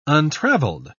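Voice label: "untraveled", British English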